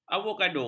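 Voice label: "avokado", Malay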